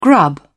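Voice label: "grub", British English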